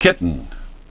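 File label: "kitten", English pronunciation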